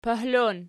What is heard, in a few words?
"pohlon", Archi